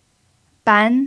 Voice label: "ban2", Mandarin pinyin

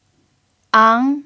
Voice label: "ang2", pinyin

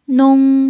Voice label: "nong1", Mandarin pinyin

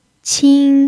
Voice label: "qin1", pinyin

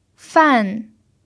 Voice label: "fan4", Mandarin pinyin

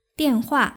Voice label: "dianhua", Mandarin; jiahaoyou

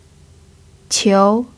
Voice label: "qiu2", mandarin pinyin

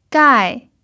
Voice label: "gai4", Mandarin pinyin

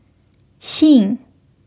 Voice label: "xin4", Mandarin pinyin

